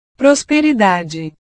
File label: "prosperidade", portugues